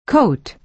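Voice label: "coat", American English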